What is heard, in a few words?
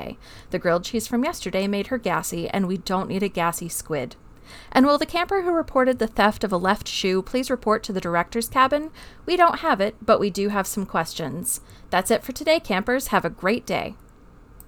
announcements